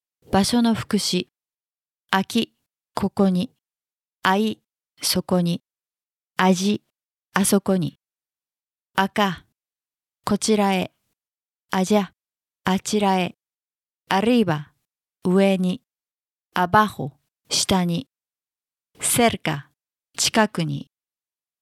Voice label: Spanish basic word; basic spanish 2019